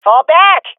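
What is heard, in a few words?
request fallback, swat female; vo; Counter Strike Model Pack; CS:GO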